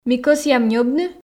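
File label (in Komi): ми кӧсъям ньӧбны, Komi, female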